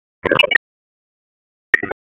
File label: Raleigh Police Northeast District Tac, p25; wake